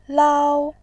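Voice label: "lao1", Mandarin Chinese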